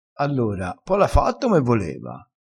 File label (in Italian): "a prova", Crespinese